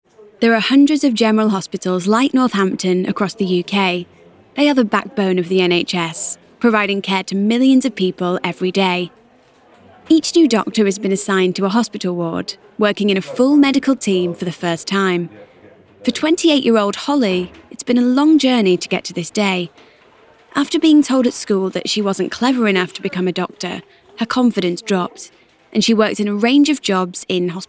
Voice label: Documentary

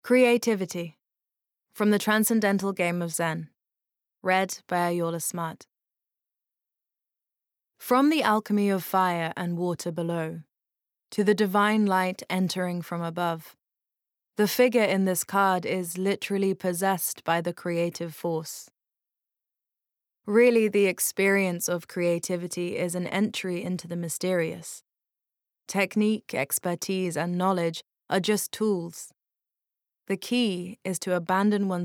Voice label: Bookread

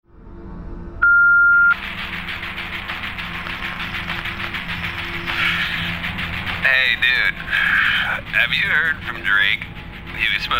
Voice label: Gaming Character Hipster Californian

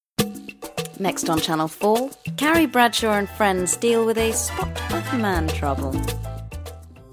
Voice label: Promo

